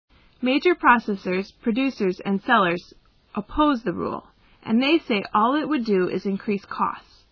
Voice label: M